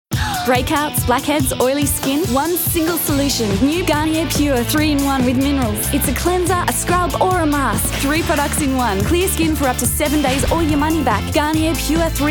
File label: Upbeat Commerical AUS; GARNIER